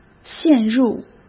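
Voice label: "陷入", Mandarin Chinese; hsk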